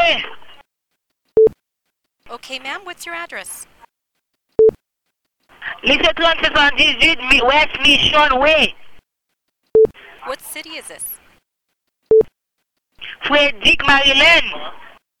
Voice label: 911 House Fire Haitian Creole without interpretation